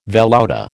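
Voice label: "velouté", American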